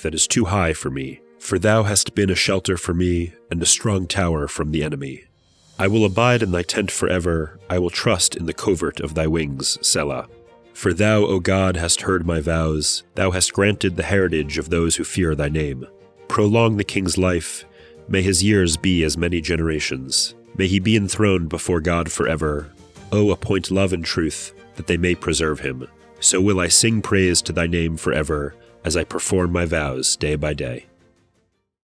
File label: poetry and prayer psalm english